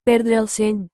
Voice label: marbles catalan